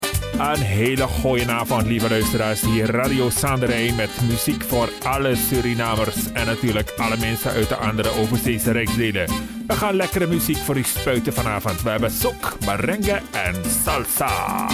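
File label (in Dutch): accent male SURINAAMS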